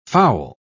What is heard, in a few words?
"fowl", pronounce